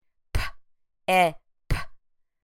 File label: p ĕ p, phonemic segmentation